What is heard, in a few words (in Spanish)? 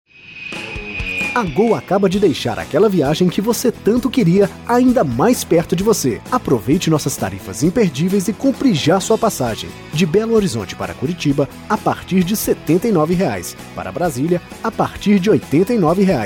comercial